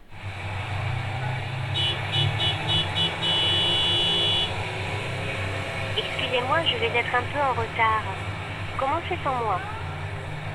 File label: clearvoice on; fr